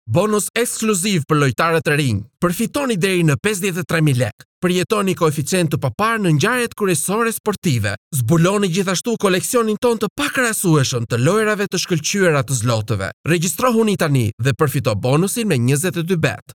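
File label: Albanian, male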